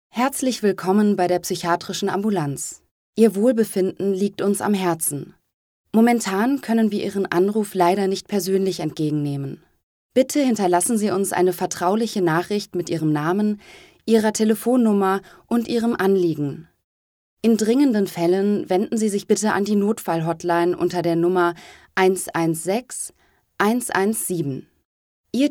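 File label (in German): sachlich, Sprecherin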